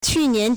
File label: "qu4 nian2", Chinese